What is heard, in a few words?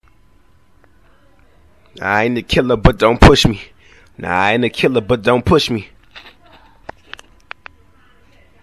homemade pop screen results